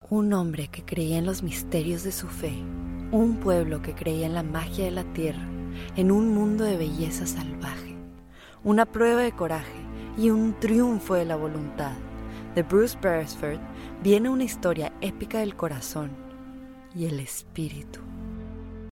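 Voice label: Latin American Spanish commercial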